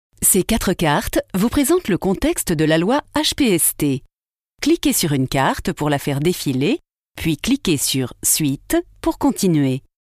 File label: E-Learning